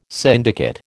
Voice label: "syndicate", American